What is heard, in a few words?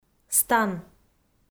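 "стан", Komi-Zyrian, female; audio dict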